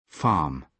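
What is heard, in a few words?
"farm", British English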